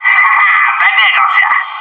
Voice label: "good shot commander", bot; maxpack

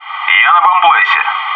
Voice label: "bombsite", bot

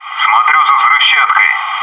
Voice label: "guarding the dropped bomb", bot; maxpack

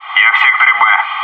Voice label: "guarding b", bot

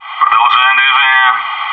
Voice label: "back hall", bot

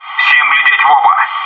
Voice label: "front hall", bot

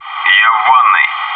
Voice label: "bathroom", bot

